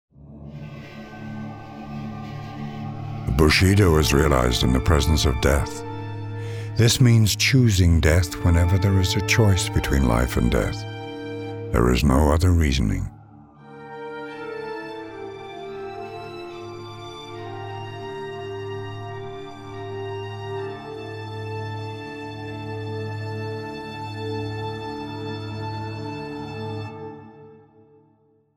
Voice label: Speech, English; Civ5; Wonder; Himeji Castle